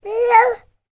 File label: human baby toddler male 15 months vocal "hello"; sound effects one